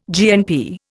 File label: "GNP", Indian